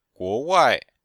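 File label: "guo2wai4", Chinese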